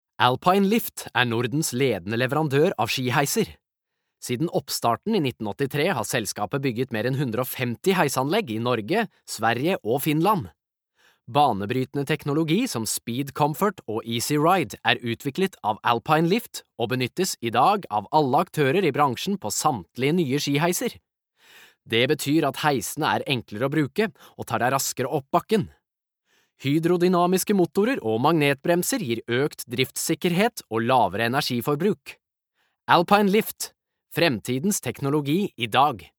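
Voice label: Norwegian corporate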